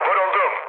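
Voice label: Turkish aircraft destroyed, mood low, speech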